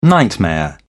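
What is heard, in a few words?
"nightmare", English, British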